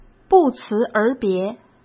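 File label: "不辞而别", Mandarin Chinese; chinese flashcards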